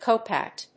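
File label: "cowpat", English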